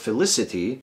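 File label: "felicity", US English; pronunciations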